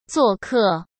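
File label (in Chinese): "作客", Mandarin Chinese; hsk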